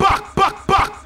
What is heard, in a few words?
"buck buck buck", ooh ahh